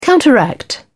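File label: "counteract", British English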